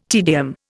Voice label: "tedium", Indian